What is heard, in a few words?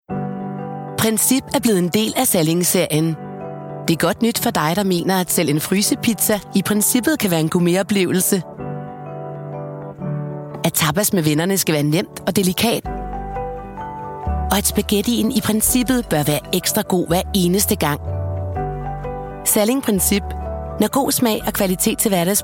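Danish commercial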